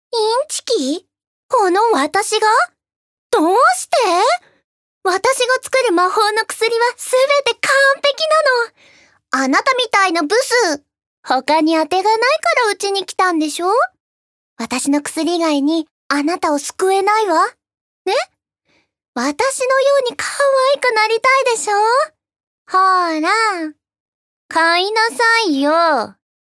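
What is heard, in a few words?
voice sample, mean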